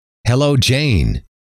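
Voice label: "hello", male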